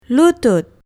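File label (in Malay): "lutut", Malay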